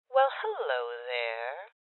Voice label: Voices Loot Spotted Female